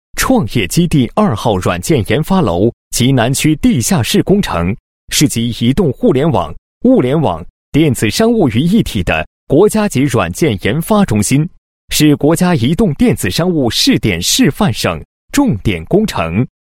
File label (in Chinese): 男 工程介绍, 配音; 创业基地2号软件研发楼及南区地下室工程